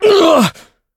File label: hit, en; Cookie Run